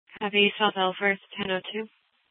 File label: Superstition Dispatch, Mesa PD